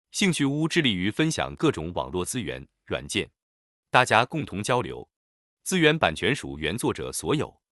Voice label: chinese